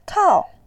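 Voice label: "kao4", Mandarin Chinese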